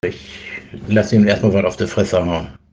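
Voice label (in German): "ich kümmer mich drum", German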